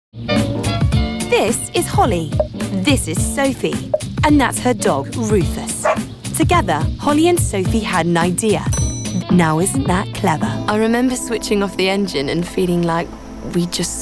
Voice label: commercial

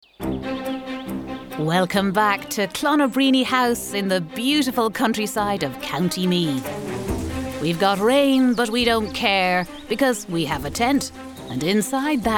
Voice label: narrative

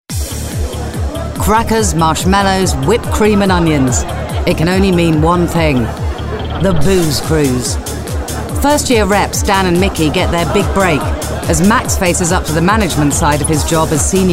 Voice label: narr

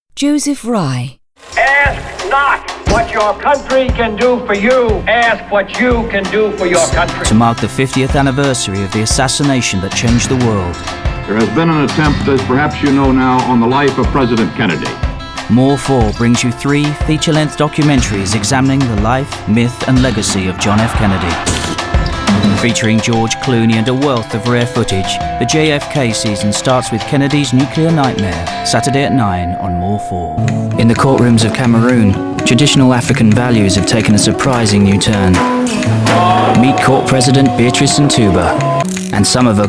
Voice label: promo

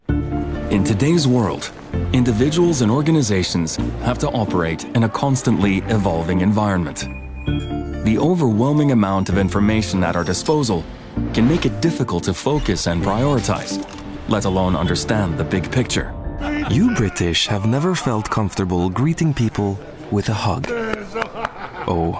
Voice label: european voice

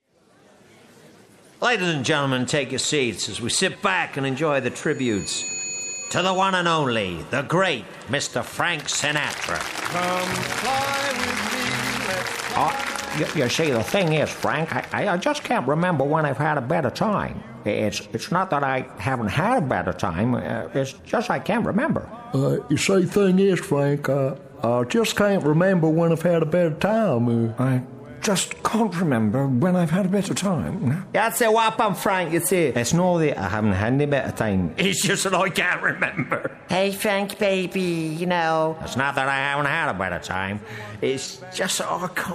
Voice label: character

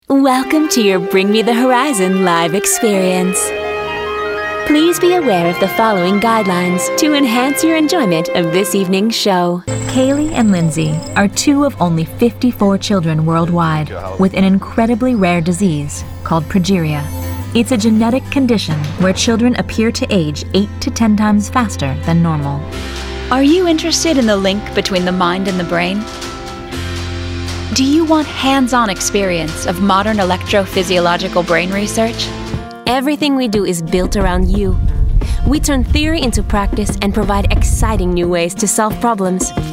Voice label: Narration

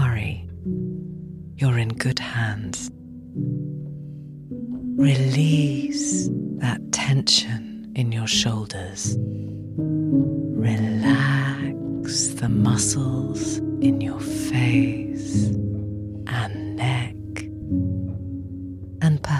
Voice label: Podcast; Lusus

